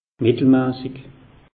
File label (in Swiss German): "mittelmassig", alsace; meteo